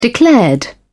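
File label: "declared", British English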